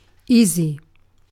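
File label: "easy", British English; pronunciation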